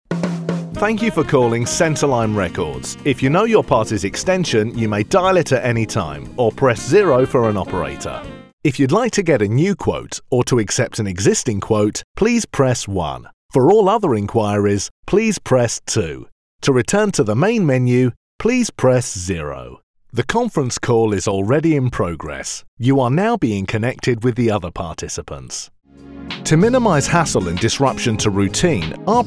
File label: On Hold